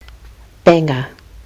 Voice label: "banger", kiwi english